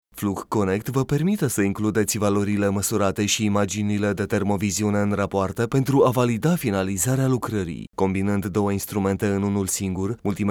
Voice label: romanian male